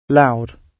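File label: "loud", British English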